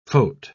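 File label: "phot-", British English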